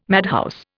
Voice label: "madhouse", Indian

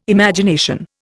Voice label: "Imagination", Indian

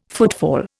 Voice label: "footfall", Indian

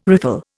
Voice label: "riffle", Indian

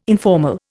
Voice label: "informal", Indian

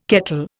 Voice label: "kettle", Indian

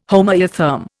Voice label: "homoeotherm", Indian

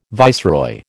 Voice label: "viceroy", American

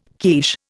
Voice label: "quiche", Indian

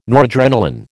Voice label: "noradrenalin", American

